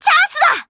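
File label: story, Japanese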